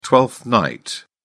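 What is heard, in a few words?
"twelfth night", English, British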